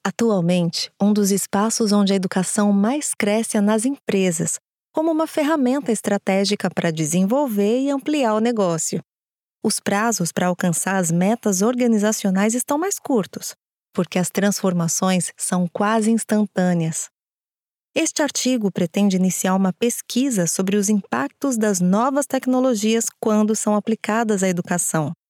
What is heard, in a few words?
portuguese brazilian e-learning